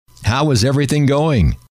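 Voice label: how is everything going, male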